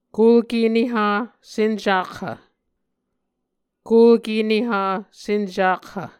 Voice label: "kulke neha sencakhv", Muscogee